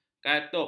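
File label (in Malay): "katup", Malay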